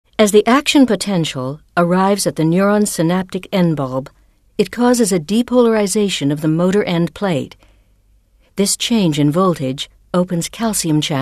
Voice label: medical narration